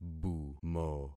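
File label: "bumo", concatenated syllables; Affixing experiment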